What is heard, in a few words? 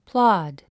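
"plod", English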